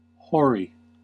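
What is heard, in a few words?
"hoary", US English; pronunciations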